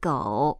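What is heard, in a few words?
"gou", Chinese, tone 3, female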